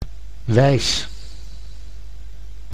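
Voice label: "wijs", Dutch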